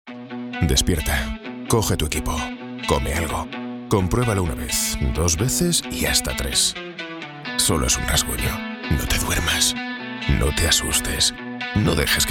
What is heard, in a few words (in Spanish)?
voz masculina grave